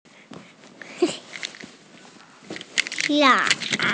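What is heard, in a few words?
"sha3", Mandarin Chinese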